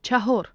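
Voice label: "chahor", Tajik, female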